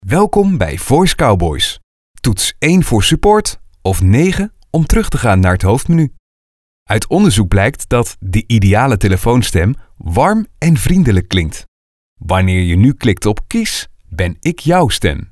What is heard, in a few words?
VOICEMAIL